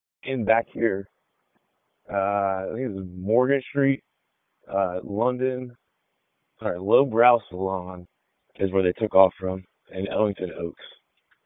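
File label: Police Southeast District Dispatch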